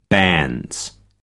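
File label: "banns", US English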